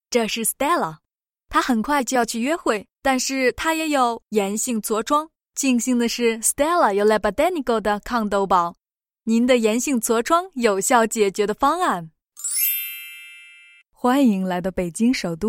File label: Chinese Mandarin F Narration; voice over